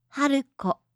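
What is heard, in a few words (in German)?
"Haruko", japanische Mädchennamen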